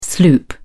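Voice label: "sloop", British English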